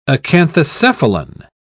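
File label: "acanthocephalan", English, British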